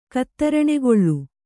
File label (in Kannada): "ಕತ್ತರಣೆಗೊಳ್ಳು", kannada